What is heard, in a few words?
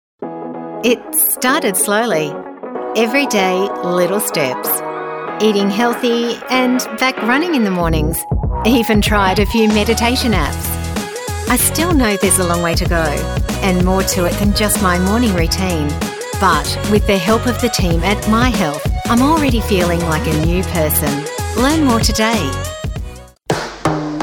english australian commercial